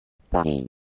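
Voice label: "Paris", French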